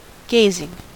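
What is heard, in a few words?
"gazing", American English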